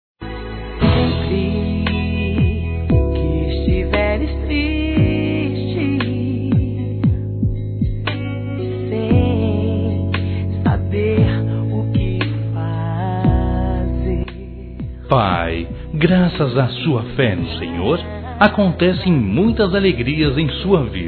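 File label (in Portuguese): evangélico masculino, telemensagens dia dos pais; Telemensagens 2017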